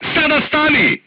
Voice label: voice message short stop, crew tanks serbian

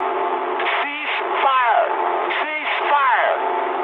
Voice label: eng player friendly fire mood high, speech eng

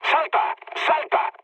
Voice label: bailout, mood low, speech; War Thunder

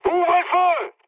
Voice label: attack position mood high, speech fr; War Thunder

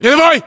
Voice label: rank private, ru

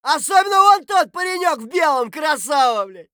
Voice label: mutter; Escape from Tarkov

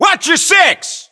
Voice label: watch six, voiceovers, US; english

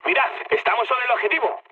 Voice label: near waypoint, mood med, speech; War Thunder

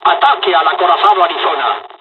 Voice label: first wave ships, dialogs spanish; War Thunder

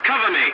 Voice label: voice message cover me, english; War Thunder

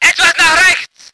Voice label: voice ger vehicle

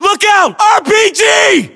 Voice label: US threat rpg, battlechatter; english

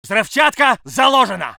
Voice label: voice sov infantry

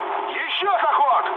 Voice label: bomb failure, mood med, speech ru; War Thunder

